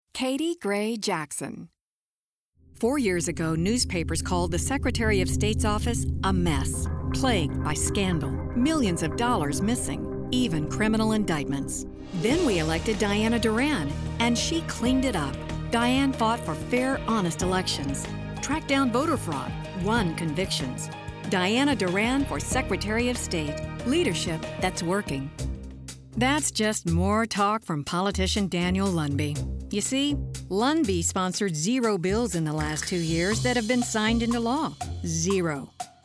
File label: Political VO